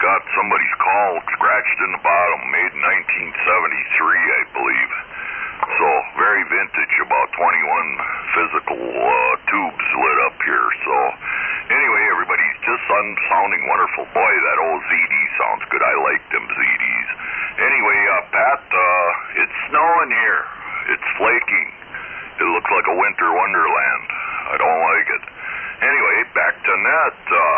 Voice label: Yaesu FTDX-560 & D-104; Algoma, Wi.

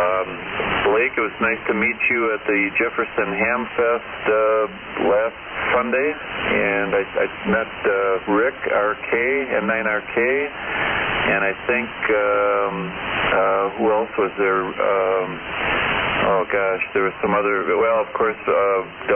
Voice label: Yaesu FT-101E Shure 444 Mic; Sussex, Wi.

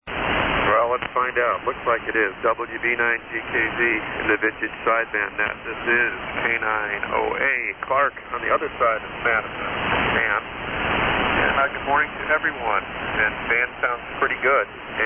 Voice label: Swan HF-700 SSB 16B Special & Turner Amp Mic; Madison,Wi.

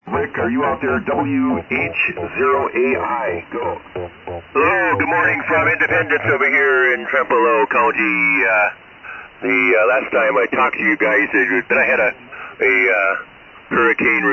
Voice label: Yaesu FT-102 & SB-200 Amp; Independence, Wi.

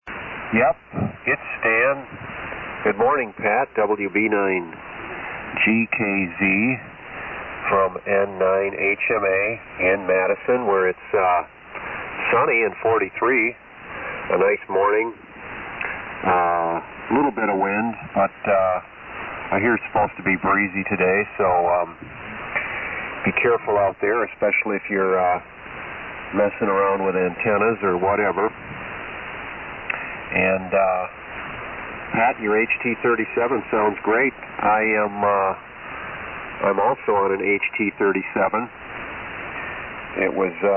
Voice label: Hallicrafters HT-37 Collins 74A-4 EV-664; Madison, Wi.

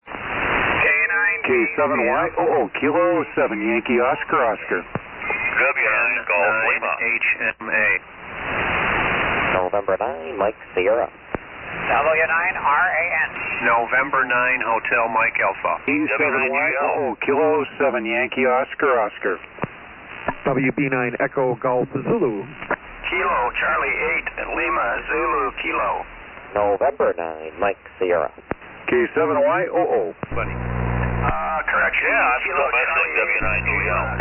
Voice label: SSB Net Check Ins

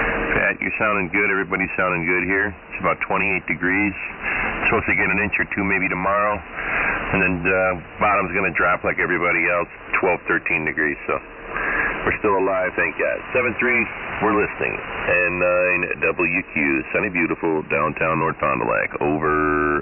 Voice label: Yaesu FT-101E & D-104 Mic

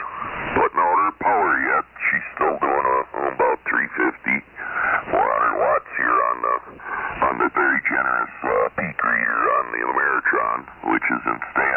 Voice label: Yaesu FTDX-560; Algoma, Wi.

